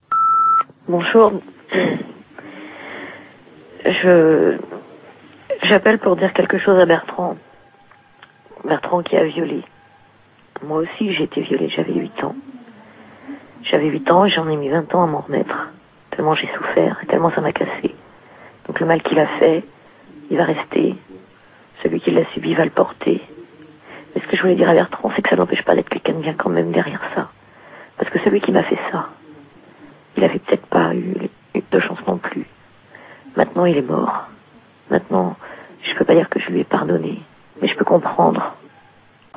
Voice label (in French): repondeur